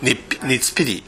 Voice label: "neppi", minamiyamagata